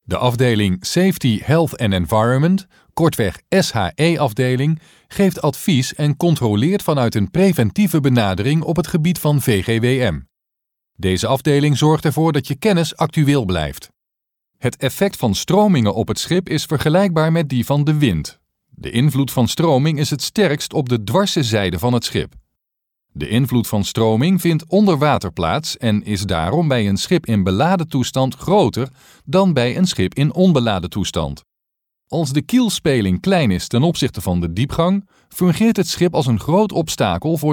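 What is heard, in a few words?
Dutch, male, e-learning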